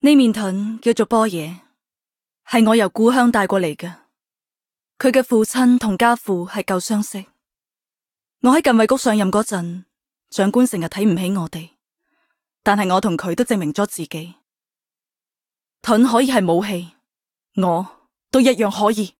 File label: Cantonese; Hoshiguma